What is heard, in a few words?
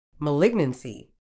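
"malignancy", British English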